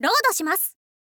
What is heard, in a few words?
"load shimasu", girl; voice